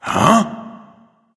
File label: confused; smite; voicepack; hercules